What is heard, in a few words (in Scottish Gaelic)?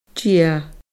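"dia", Scottish Gaelic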